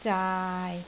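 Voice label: "zaai6", Cantonese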